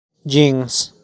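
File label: "Jeans", English; clothes; Ropa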